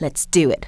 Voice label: "do it", female; kingpin; yolanda